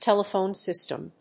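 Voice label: "telephone system", English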